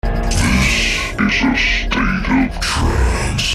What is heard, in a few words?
halloween voice sms